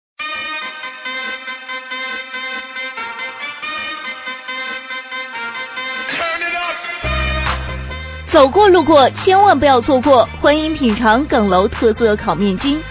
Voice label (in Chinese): 女 促销 烤面筋